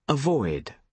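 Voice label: "avoid", British English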